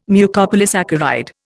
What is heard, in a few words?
"Mucopolysaccharide", Indian